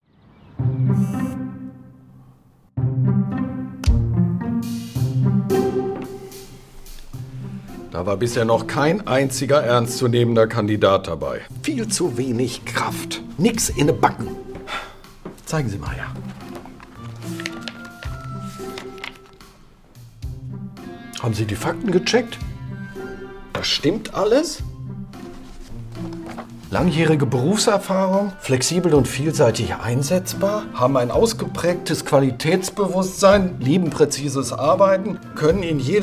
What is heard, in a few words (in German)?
Commercial; Rolle: Firmenchef